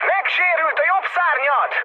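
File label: Hungarian right wing mood high, speech; War Thunder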